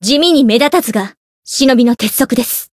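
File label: Voice win, Japanese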